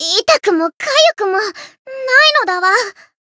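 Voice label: win barely, Japanese; thillelille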